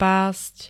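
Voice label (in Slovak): "past", Slovak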